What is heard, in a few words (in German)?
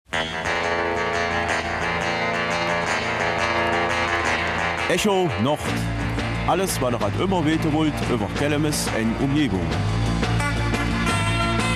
Kelmiser Mundart; Auftakt zu den Feierlichkeiten 200 Jahre Neutral-Moresnet